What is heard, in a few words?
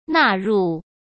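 "纳入", Mandarin Chinese; chinese-flashcards; hsk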